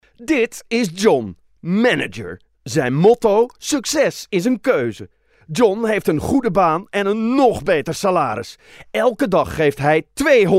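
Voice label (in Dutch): Commercials, Voice-over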